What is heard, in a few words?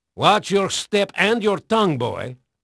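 Portuguese male, voice; Pirates Of The Caribbean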